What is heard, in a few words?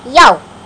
yo (cartoon); Sound Library 2000; Wayzata Technology